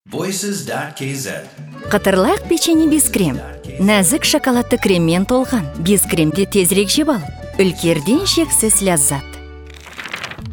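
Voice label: Kazakh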